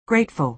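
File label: "grateful", American English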